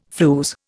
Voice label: "flews", Indian